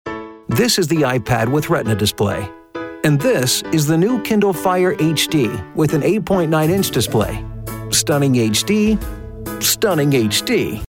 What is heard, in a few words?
Conversational Explainer